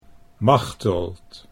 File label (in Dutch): "Machteld", Dutch